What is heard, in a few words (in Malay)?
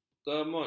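"kemut", Malay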